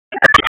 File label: Raleigh Police Southwest District Tac, p25; wake p25